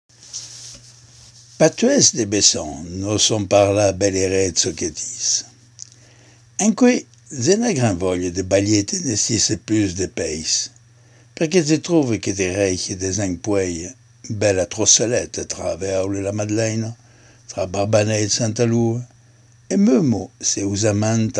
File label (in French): Patois de Bessans